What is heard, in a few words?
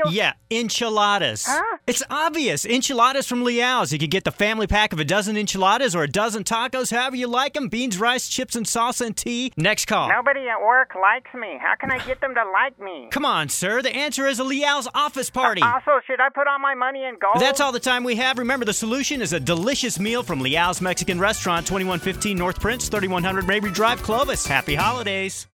Talk Radio; KTQM-FM; Leal's Mexican Restaurants